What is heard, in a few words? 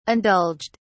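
"indulged", British English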